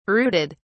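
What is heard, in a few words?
"brooded", British English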